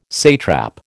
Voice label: "satrap", American

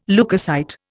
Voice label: "leukocyte", Indian

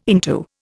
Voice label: "into", Indian

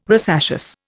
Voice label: "rosaceous", Indian

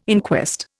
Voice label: "inquest", Indian

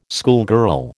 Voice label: "schoolgirl", American

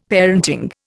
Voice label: "parenting", Indian; Dictionary

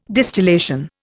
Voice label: "distillation", Indian

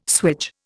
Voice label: "swage", Indian

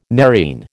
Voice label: "nerine", American

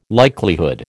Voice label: "likelihood", American

